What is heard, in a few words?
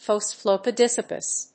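"phospholipidosis", English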